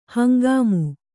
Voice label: "ಹಂಗಾಮು", kannada

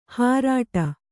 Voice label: "ಹಾರಾಡು", Kannada

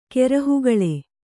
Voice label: "ಕೆರಹುಗಾಲ್", Kannada

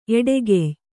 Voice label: "ಎಡಗಯ್", Kannada

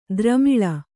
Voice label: "ದ್ರಮಿಳ", Kannada

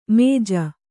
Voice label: "ಮಾಜು", Kannada

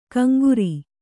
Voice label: "ಕಂಗುರಿ", Kannada

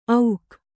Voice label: "ಔಕ್", Kannada

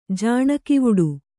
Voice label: "ಜಾಣಗಿವುಡು", kannada

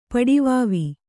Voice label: "ಪಡಿವಾವಿ", Kannada

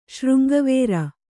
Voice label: "ಶೃಂಗವೇರ", kannada

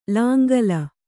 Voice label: "ಲಾಂಗೂಲ", Kannada

